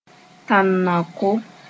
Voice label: "tãnnaoko", moore

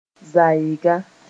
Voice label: "zaeega", moore

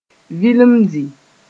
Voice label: "vilimdi", moore

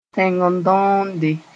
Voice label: "tẽng-n-dõonde", Moore; bird sp

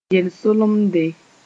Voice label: "yel-solemde", moore

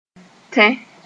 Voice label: "tẽ", Moore

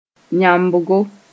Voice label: "yãmbgo", moore

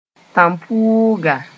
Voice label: "tãn-puuga", moore

